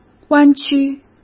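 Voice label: "弯曲", Mandarin Chinese; chinese-flashcards; hsk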